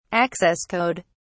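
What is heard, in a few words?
"access code", British English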